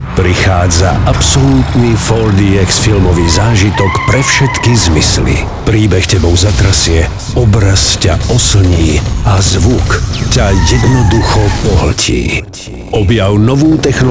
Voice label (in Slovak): dramaticky